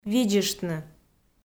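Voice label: "видзыштны", Komi, female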